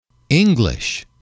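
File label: "english", English, male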